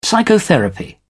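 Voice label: "psychotherapy", British English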